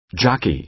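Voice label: "jockey", American English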